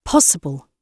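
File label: "possible", British English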